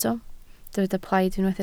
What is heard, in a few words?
"plaid", Welsh